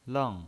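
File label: "leng", pinyin, Mandarin Chinese, fourth tone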